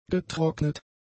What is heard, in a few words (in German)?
"getrocknet", german; adjectives